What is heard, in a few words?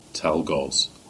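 "Tolgus", Cornish; place-names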